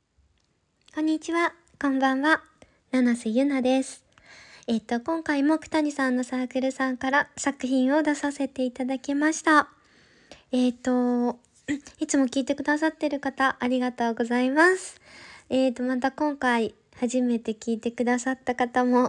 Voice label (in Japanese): フリートーク